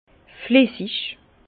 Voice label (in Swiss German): "flessig", Bas Rhin; fluide; vin